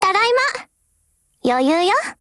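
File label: OPERATION OVER, Japanese; GF Alarm; UMP45Mod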